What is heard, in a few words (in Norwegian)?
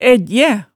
"æggjæ", hemsedalsmaalet tale